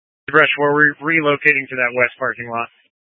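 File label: Incident Tac 2, Scanner audio recording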